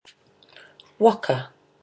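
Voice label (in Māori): "waka", Māori word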